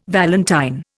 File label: "valentine", Indian